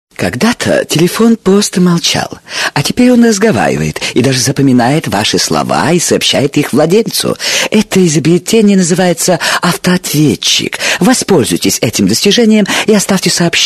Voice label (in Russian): avtootvetchik kogda to telefon prosto molchal